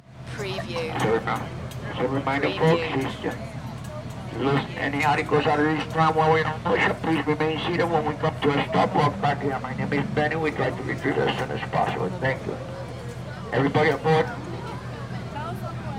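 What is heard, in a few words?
Shuttle Announcement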